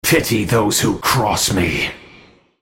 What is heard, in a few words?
attack, en US; Varus